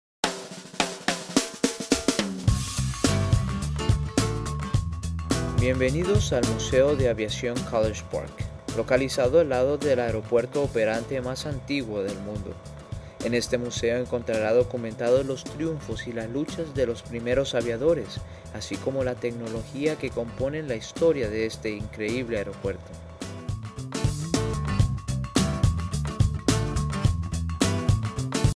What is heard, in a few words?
Spanish Intro With Music; pgparks podcasts